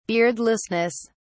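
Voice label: "beardlessness", English, British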